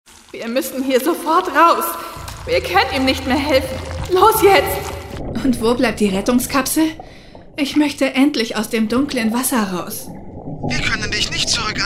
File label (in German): Hoerspiel aengstlich traurig verzweifelt